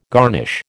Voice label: "garnish", American

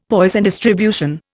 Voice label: "Poisson distribution", Indian; Dictionary

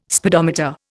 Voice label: "speedometer", Indian

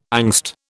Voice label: "angst", British

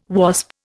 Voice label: "Wasp", Indian

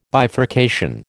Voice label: "bifurcation", American

